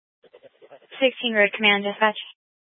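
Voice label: Incident Tactical 2, Scanner audio recording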